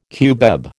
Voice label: "cubeb", American

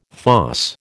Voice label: "fosse", American

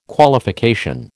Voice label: "Qualification", American

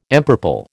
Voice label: "empurple", American

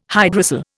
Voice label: "hydrocele", Indian

